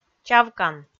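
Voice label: "чавкан", Komi, female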